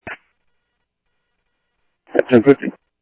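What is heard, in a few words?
Sheriff East County, scanner audio trunk; South Scottsdale; MCSO - EAST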